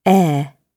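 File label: "heir", British English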